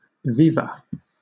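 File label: "viva", British English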